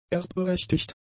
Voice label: "erbberechtigt", German; adjectives

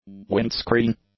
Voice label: "windscreen", English; nouns

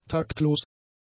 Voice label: "taktlos", German; adjectives

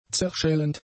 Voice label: "zerschellend", German; adjectives

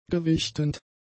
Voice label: "gewichtend", German; adjectives

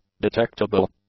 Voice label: "detectable", english; adjectives

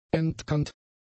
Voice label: "entkernt", German; adjectives

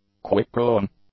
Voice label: "quick-growing", English; adjectives

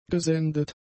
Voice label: "gesendet", German; adjectives

